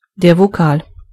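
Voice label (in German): "vowel", German; gcompris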